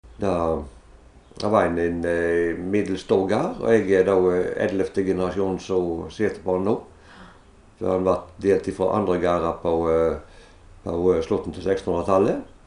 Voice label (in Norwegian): Kvam, dialekt